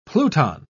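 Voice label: "pluton", British English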